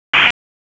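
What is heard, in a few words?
EMS Dispatch 1, p25; wake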